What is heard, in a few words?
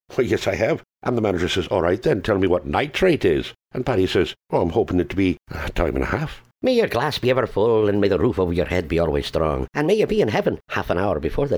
Irish